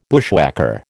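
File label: "bushwhacker", American English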